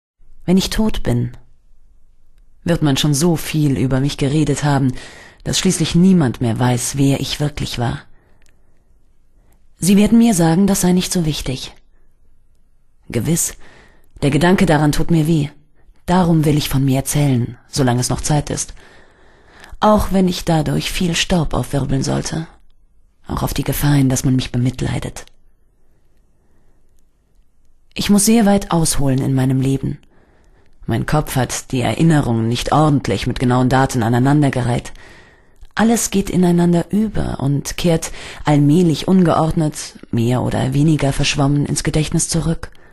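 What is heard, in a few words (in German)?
autobiografie deutsch, frauen; Piaf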